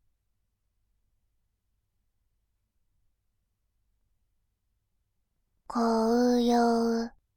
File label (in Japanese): 紅葉, バイノーラル左, ASMR